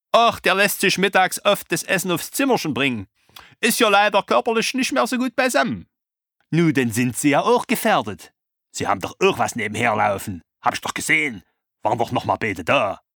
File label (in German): Saechsische Tratschbacke Hoerbuch; audible